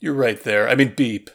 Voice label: Voices exasperated; The Looker